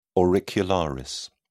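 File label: "auricularis", British English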